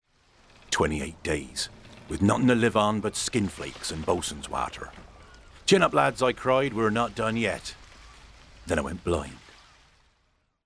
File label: SALTY SEADOG